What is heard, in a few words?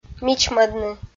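"мичмӧдны" Komi, female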